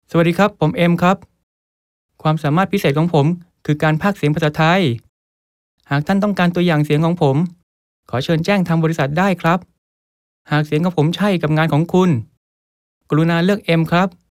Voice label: THAI